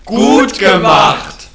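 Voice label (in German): "gut", German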